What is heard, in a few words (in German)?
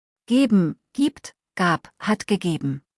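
"geben" German word; verben kurzliste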